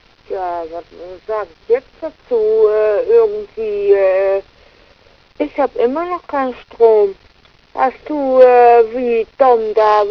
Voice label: voicemail